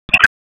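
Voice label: Dispatch 1, P25; wake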